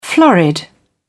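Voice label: "florid", British English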